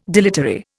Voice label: "dilatory", Indian